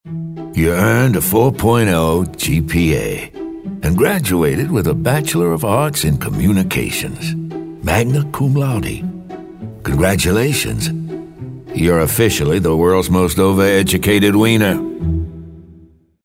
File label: attitude